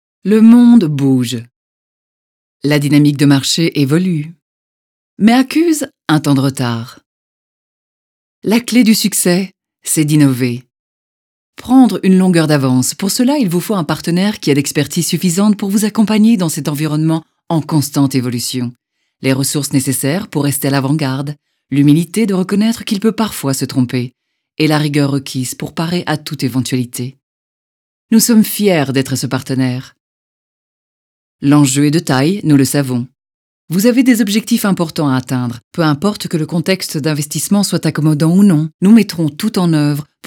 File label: French corporate; Pimco